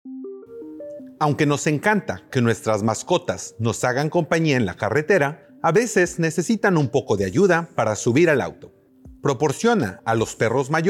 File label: Pet Ramp Spanish